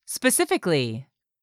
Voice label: "SPECIFICALLY", english; 3000 words

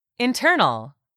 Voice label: "internal", English; 3000 words

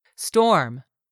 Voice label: "storm", English; 3000 words

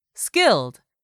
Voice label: "SKILLED", english; 3000 words

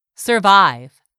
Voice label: "survive", English; 3000 words

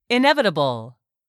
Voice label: "inevitable", English word; 3000 words

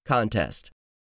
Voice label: "contest", English; 3000 words

